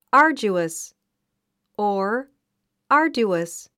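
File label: "arduous", English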